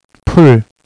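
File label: "grass", English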